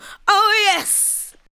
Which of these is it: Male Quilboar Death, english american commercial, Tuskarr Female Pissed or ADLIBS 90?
ADLIBS 90